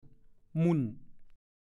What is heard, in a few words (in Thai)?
"หมุ่น", ภาษาโคราช; แทรก มุด